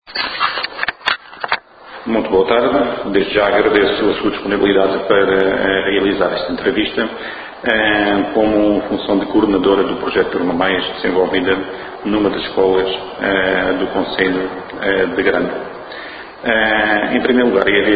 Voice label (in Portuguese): Entrevistado